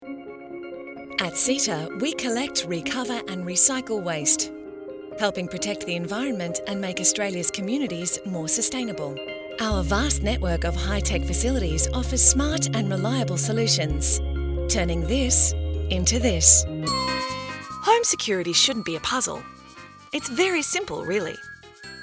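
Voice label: Australian narration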